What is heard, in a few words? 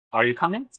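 Voice Acting es M, Spanish, male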